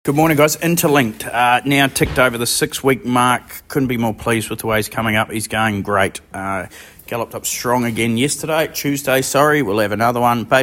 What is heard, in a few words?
voice note; Interlinked NZ